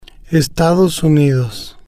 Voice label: "estados Unidos", spanish

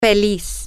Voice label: happy, spanish, F

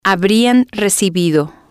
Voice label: "habrian recibido", Spanish

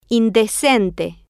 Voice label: "indecente", Spanish

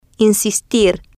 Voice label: "insistir en", Spanish

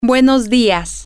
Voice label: good morning, spanish, F

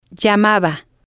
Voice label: "llamaba", spanish

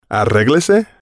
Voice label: "arreglese", spanish

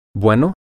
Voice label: good, spanish, M

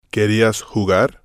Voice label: "querias jugar", spanish

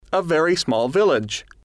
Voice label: "a very small village", Spanish

